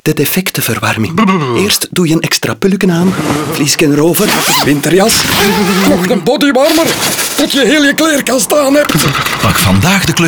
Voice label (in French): Radio Chauffage, NL; So-Simply